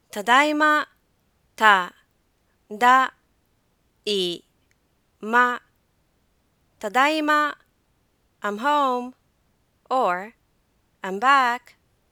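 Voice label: "tadaima", Japanese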